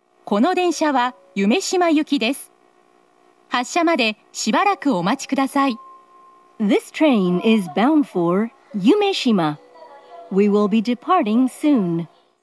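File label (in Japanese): 大阪メトロ中央線, 夢洲行行先案内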